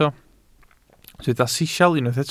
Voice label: "sisial", welsh